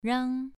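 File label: "rang", tone 2, pronunciation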